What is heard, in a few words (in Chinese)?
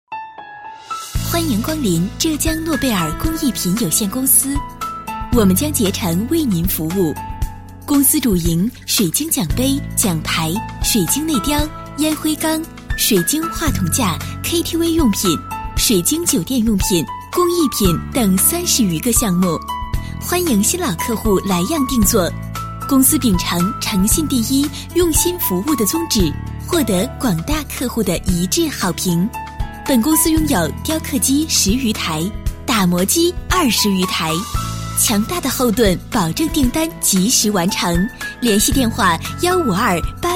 女 彩铃女声